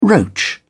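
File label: "roach", British English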